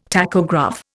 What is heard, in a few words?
"tachograph", Indian